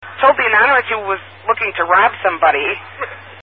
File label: Scanner Robbery